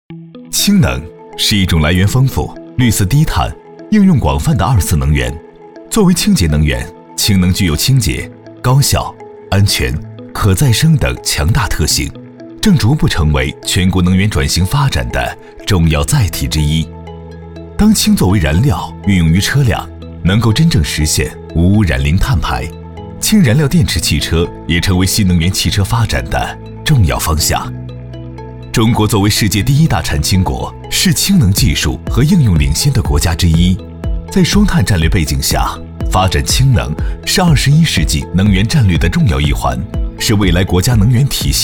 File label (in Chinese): 男 科普氢能 年轻活力